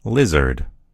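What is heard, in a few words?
"lizard", US English; gcompris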